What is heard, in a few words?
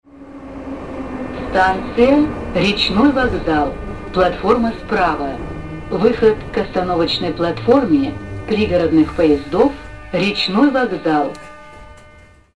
female